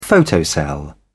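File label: "photocell", British English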